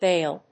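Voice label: "Baile", English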